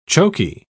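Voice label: "chokey", British English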